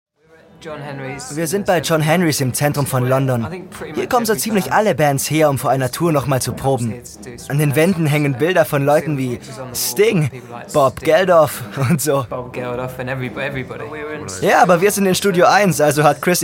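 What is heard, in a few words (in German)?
commentary, Variabel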